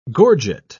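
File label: "gorget", English, British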